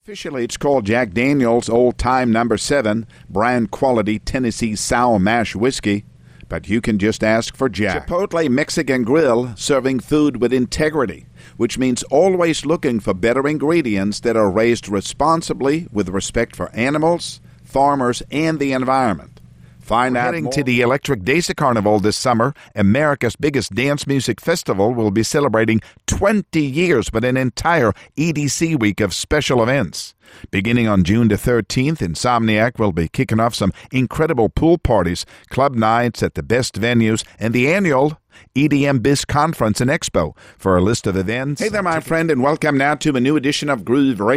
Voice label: Accented English, Male

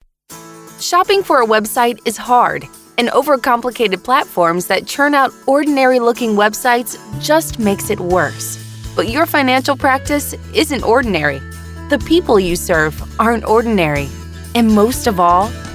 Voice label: American Female

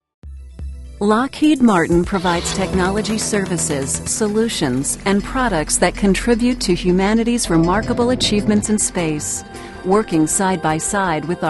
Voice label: American Long Form, Female

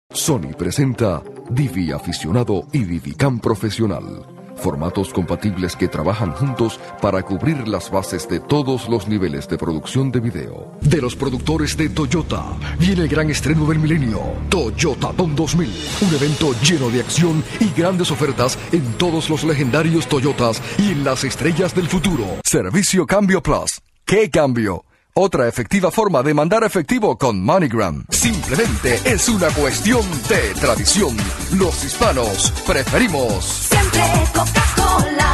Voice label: Spanish Male

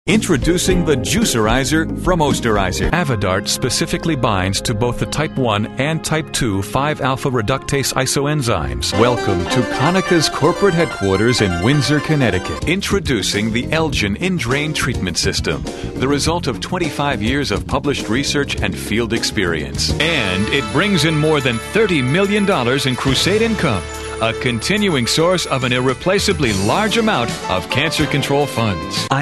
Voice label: American Male

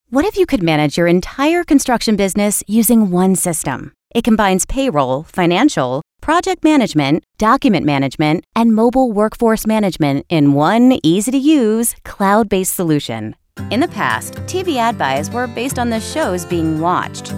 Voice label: American Long Form Female

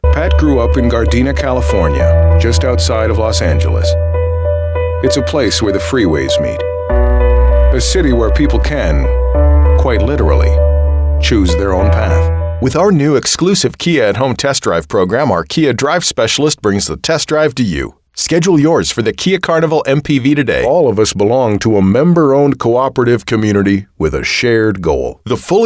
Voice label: American Long Form, Male

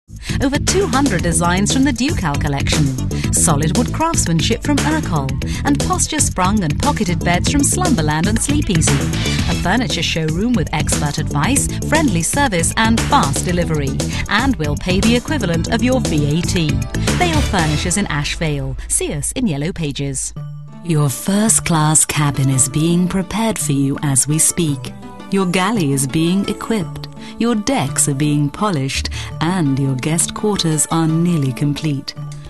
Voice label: British Female

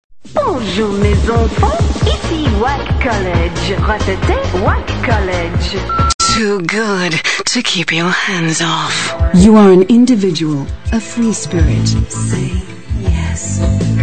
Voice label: British Female

